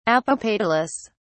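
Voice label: "apopetalous", British English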